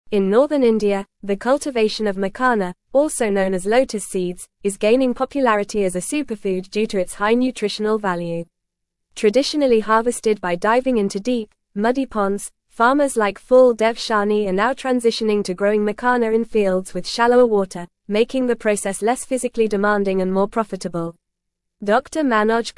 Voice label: English Advanced FAST Reading; Revolutionizing Makhana Cultivation Transforming Agriculture in Northern India